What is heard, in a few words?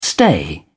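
"stay", British English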